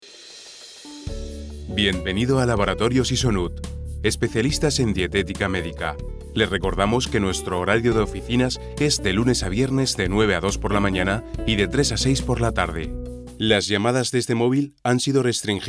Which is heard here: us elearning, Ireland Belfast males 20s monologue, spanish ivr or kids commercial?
spanish ivr